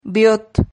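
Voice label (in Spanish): "viot", Spanish syllable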